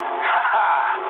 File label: trick, speech ru